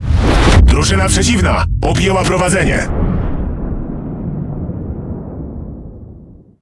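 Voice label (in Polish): Speech Domination Enemy Advantage, Polish; World Of Warships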